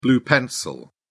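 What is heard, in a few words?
"blue-pencil", British English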